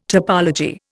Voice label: "topology", Indian

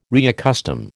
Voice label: "reaccustom", American

